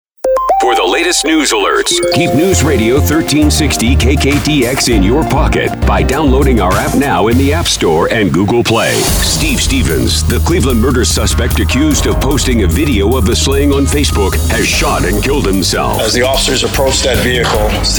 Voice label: voiceover examples